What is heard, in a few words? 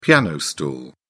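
"piano stool", British English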